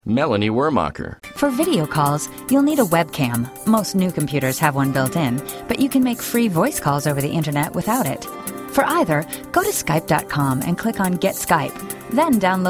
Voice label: female narration

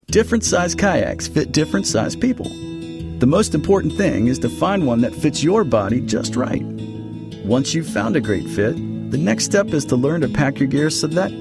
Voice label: Narrative

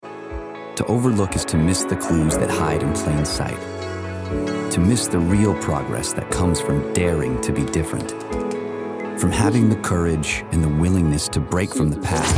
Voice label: Male, commercial

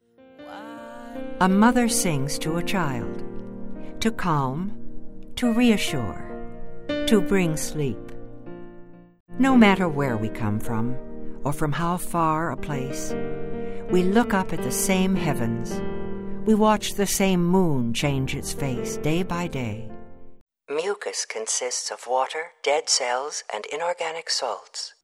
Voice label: Female narration

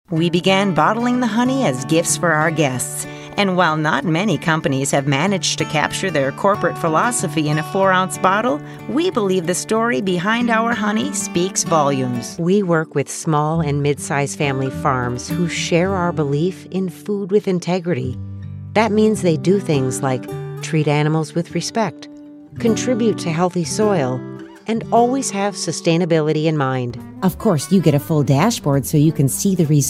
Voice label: female narration

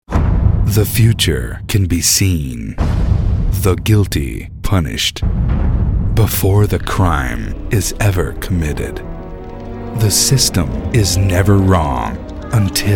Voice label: Trailers

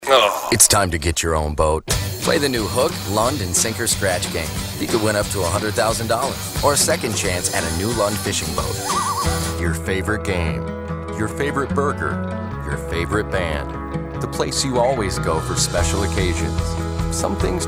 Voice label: male commercial

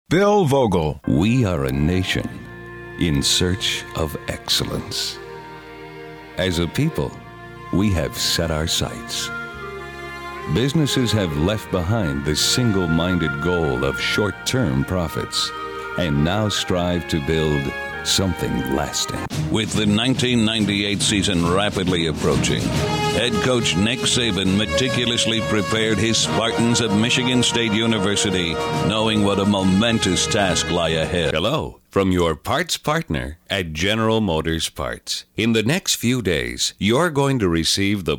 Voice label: narration

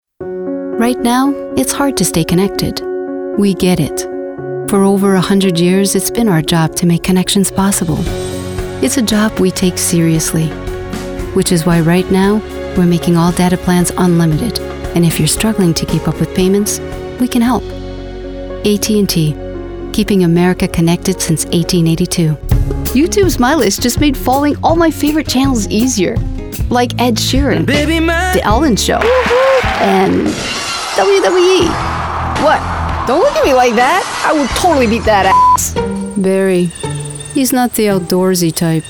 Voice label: Female Commercial

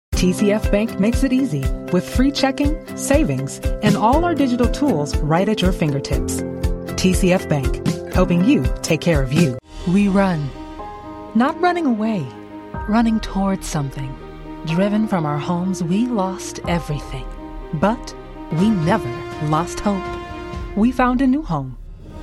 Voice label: female commercial

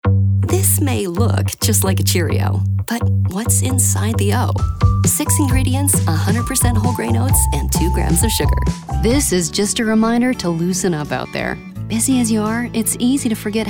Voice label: female commercial

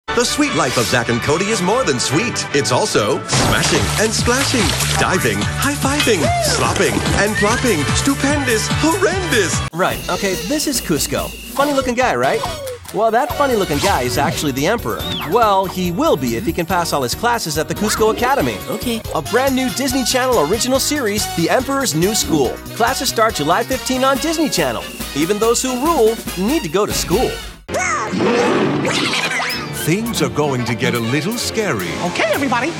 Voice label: Promo; Moore Talent 2007

